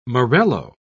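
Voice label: "morello", British English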